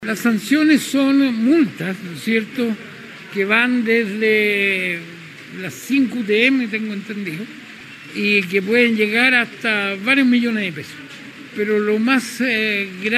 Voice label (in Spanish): cuña; BÓRQUEZ